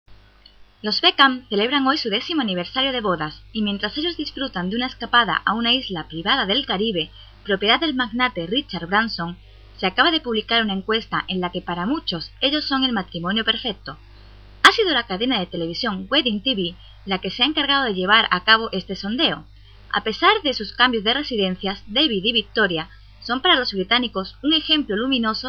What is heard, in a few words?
news